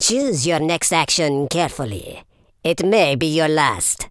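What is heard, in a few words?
"I'll get you", male villain voice; speech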